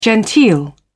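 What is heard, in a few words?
"genteel", British English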